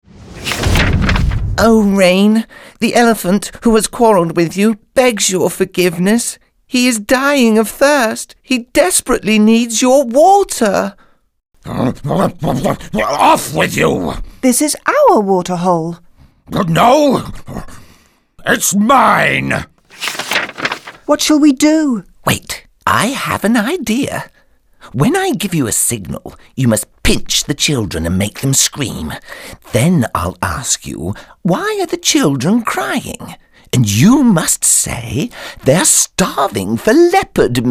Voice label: male english multiple accents character and animation compilation; Devine Voices